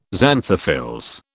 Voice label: "xanthophylls", British

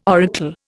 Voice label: "oracle", Indian

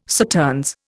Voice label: "Sauternes", Indian

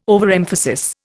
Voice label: "overemphasis", Indian

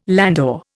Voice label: "landau", Indian

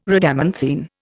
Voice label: "Rhadamanthine", Indian

